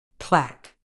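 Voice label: "plaque", medical English, British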